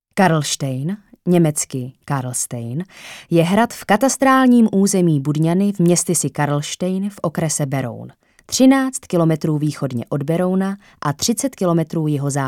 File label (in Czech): ukazka voice over